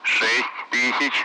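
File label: Speech, Russian, Numbers, 60; Allied Flight; DCS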